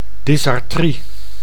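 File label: "dysartrie", Dutch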